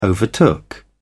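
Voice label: "overtook", English, British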